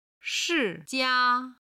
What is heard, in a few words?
"shi4 jia1", Chinese